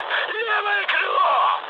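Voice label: Russian left wing mood high, speech; War Thunder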